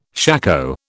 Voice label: "shako", British

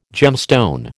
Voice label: "gemstone", American

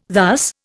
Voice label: "thus", Indian

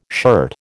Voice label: "shirt", American

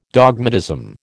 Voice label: "dogmatism", American

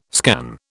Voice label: "scan", British

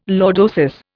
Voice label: "lordosis", Indian

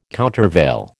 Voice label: "countervail", American

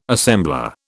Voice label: "assembler", British

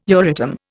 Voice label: "Euratom", Indian

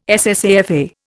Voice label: "SSAFA", Indian

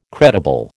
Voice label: "credible", American

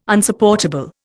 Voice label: "Unsupportable", Indian

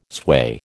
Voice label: "sway", American

